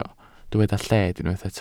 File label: "lled", welsh